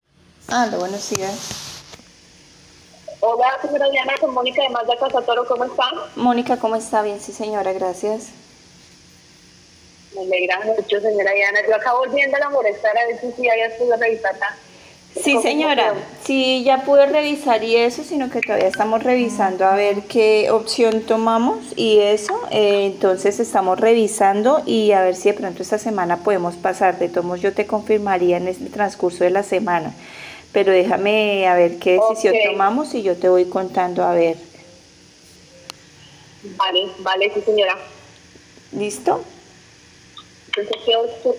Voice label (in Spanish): LLAMADA SEGUIMIENTO; CASA TORO; Mazda CX-5